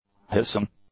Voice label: "hissing", English; nouns